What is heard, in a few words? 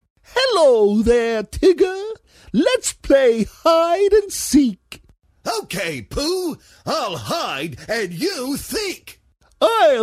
Cartoon and animation